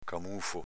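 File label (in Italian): "camùfo" Veneto dialect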